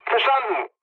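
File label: German chat, speech; War Thunder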